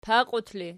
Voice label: "paqiut", Archi

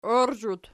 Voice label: "oirzhut", Archi

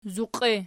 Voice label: "zuqie", Archi

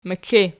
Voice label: "mekle", Archi

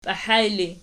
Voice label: "piahaj", Archi

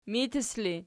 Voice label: "mitis", Archi

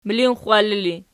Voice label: "milinxxwalli", Archi

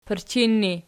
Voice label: "pirchin", Archi

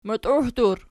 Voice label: "mutiuhdur", Archi

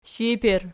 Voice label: "shiper", Archi

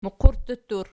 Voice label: "muqurttur", Archi

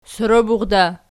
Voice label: "soroburda", Archi

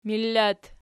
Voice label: "millat", Archi

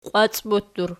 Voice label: "qiwacbottur", Archi

